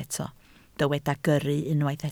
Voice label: "gyrru", Welsh